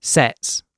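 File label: "sets", British English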